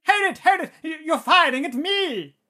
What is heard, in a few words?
battle chatter